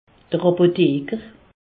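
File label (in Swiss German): "apotheker", Alsace, Haut Rhin; pharmacien; medecine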